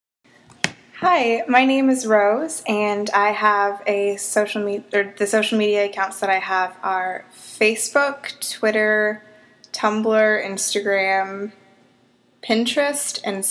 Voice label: interview